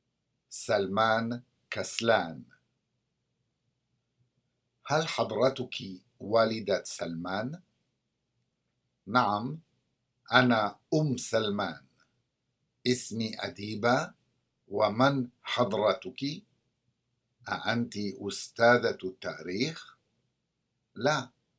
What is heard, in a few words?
Conversation; SALAMAAT